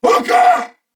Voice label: Surprised, Corpus Venus Male; Dialog; Barks; Battle; Warframe; CorpusVenusMaleA

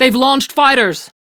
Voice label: Crew Gun Spot Fighter, Steel Meridian Female; Dialog; en; Warframe; RailjackCrew

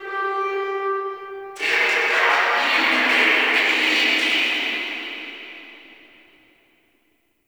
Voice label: Guild Announcer; Warframe

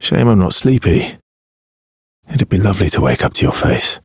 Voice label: Ambient Barks, en; Warframe; Mall Romance; Arthur

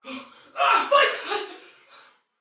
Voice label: Damage Low Chance Soldier Female; Battle; Barks; Dialog

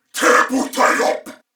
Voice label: Attack Male, en; Corpus Venus; Warframe; CorpusVenusMaleB

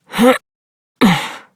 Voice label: Horse Dismount Adult, Dialog; Duviri

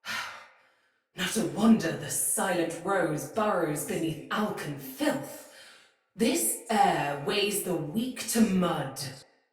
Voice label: Patrol Spoken, Soldier Female; Battle; Barks; Dialog; Sinecure Soldier Female A

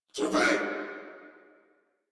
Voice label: surprised crewmen; Warframe; Corpus Crewmen; Crewman B

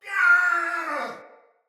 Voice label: Death Soldier Male; Battle; Barks; Dialog; Sinecure Soldier Male A

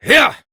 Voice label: Horse Sprint Adult; Duviri

